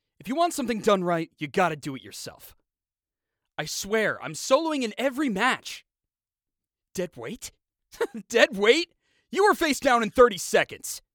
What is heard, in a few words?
Edgy jerk